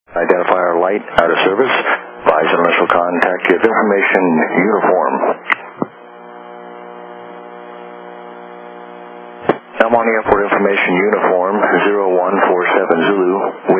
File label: ATIS, USA; EMT